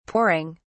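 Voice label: "pouring", British English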